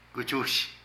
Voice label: Pelar, Zapoteco de Petapa; Vocabulary

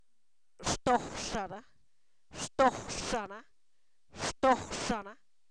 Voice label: Zapoteco, san miguel albarradas; su olor; posesivos

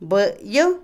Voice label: cerebro, sesos, Otomí, mujer; Cuerpo; INALI

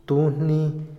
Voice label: "lucha", Otomí, hombre; INALI

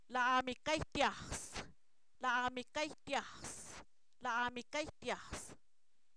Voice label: Zapoteco, san miguel albarradas; salta(él); verbos; INALI

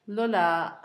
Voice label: oaxaca, Zapoteco, Asunción Ocotlan; INALI; Haspelmath

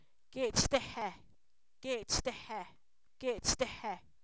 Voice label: Zapoteco, san miguel albarradas; sarampión; enfermedades

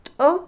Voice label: Otomí, mujer; piojo; Animales; INALI

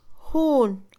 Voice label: duro, Mixe, Mujer; Percepción; INALI

